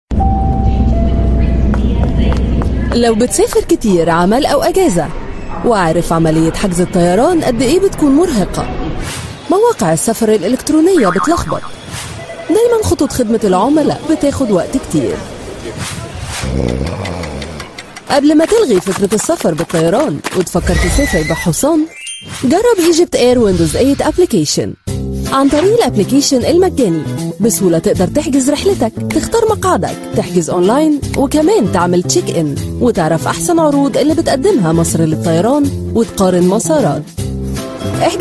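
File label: Arabic Female; EgyptAir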